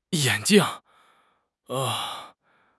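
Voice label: "yan3 jing4", Chinese; HSK4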